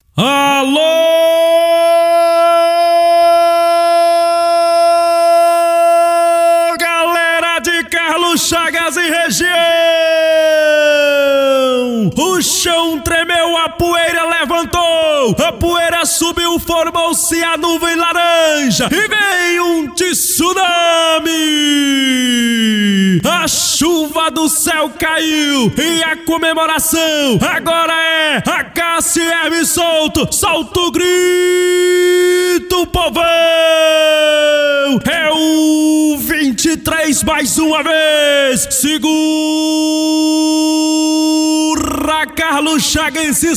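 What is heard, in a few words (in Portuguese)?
locutor de rodeio cavalgada versos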